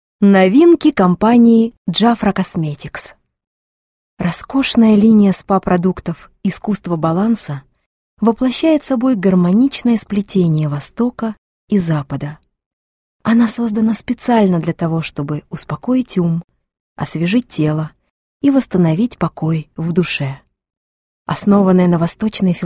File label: Russian Relaxing, Russian Female